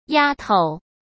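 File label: "丫头", Mandarin Chinese; hsk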